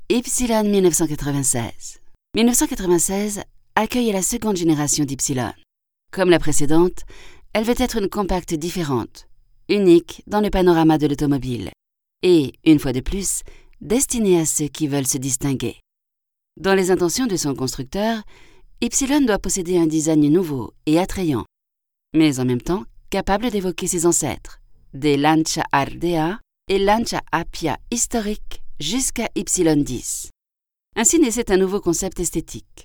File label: french european female narrative